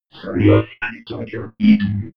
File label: robot talking droid